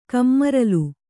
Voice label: "ಕಮ್ಮರಲ್", Kannada